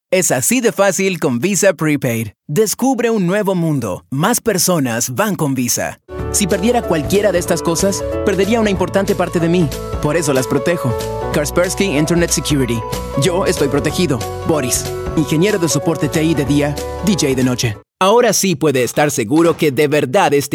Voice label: spanish latin amercian commercial